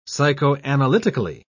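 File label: "psychoanalytically", British English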